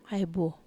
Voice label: "ai buo", Wamesa